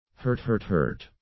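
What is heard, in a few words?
"hurt hurt hurt", English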